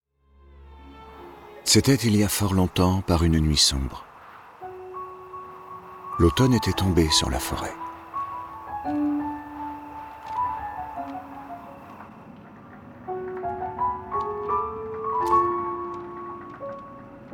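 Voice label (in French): french male narration; voice demos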